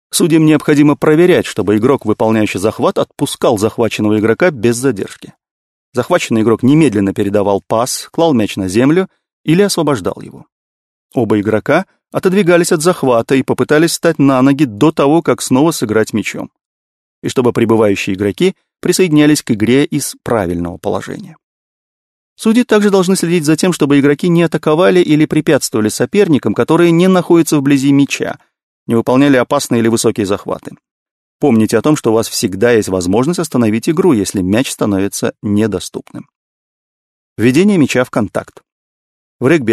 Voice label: russian male

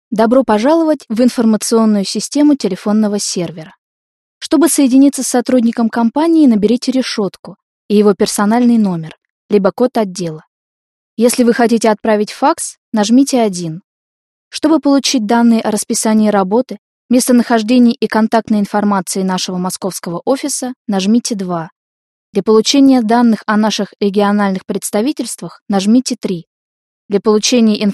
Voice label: russian female

